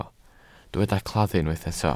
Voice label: "claddu", Welsh

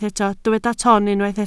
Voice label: "ton", Welsh

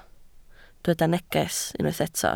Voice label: "neges" Welsh

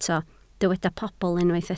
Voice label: "pobl", Welsh

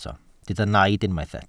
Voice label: "naid" Welsh

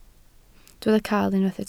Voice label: "cael", Welsh

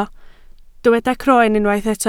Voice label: "croen", Welsh

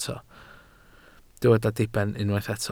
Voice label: "diben", Welsh

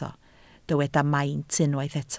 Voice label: "maint", Welsh

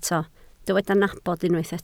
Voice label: "nabod", Welsh

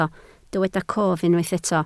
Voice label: "cof", Welsh

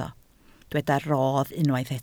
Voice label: "rodd", Welsh

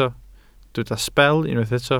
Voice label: "sbel" Welsh

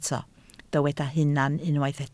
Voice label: "hunan", Welsh

